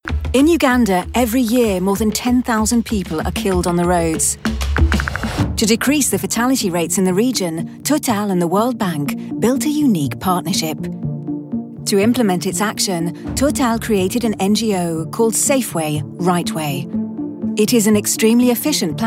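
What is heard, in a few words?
female, British; Total Foundation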